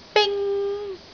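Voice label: "bing1", Cantonese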